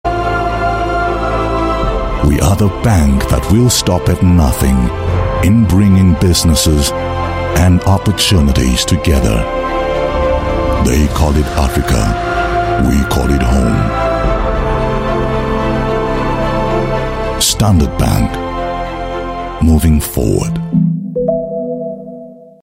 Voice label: African English, African Male; Standard Bank Leadership

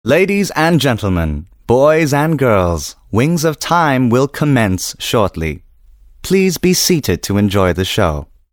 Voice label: Asian English Announcement

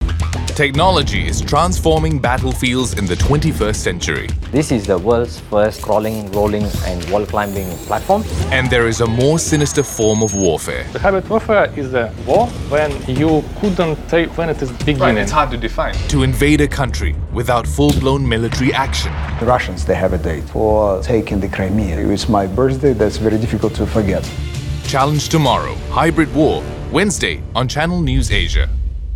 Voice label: Asian English TRAILER; CT2 HYBRID WAR

